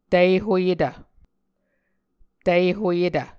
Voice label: "tihoyetv", Muscogee